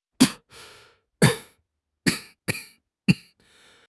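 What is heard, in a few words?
"器官", Mandarin Chinese; HSK6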